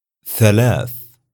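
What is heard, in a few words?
"thalath", Arabic; numbers; raqm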